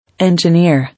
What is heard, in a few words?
"Engineer", English